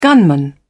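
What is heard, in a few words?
"gunman", British English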